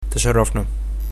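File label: meet, arabic egyptian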